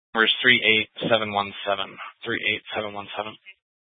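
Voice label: Police Dispatch, scanner audio recording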